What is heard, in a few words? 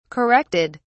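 "corrected", British English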